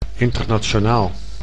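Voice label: "internationaal", Dutch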